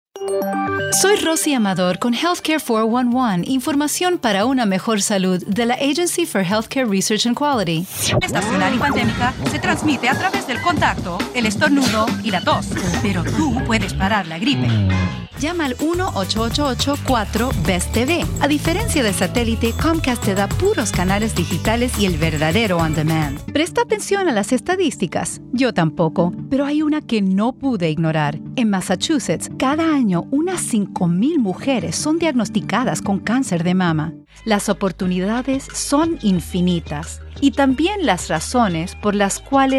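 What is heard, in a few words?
Voice Talent Commercial Spanish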